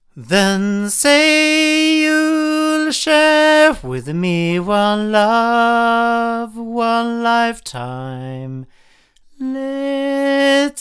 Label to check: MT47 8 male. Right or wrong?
Right